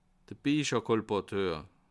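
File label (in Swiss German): "biechelkolporteur", Bas Rhin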